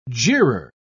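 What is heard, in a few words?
"jeerer", British English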